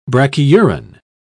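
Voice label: "brachyuran", English, British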